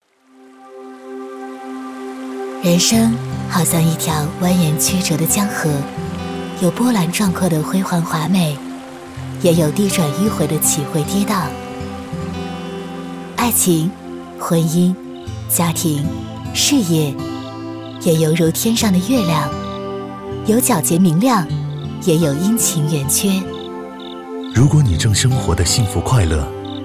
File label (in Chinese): 女 电台包装 温柔舒缓